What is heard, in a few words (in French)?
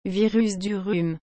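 "virus du rhume", French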